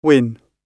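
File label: "win", British English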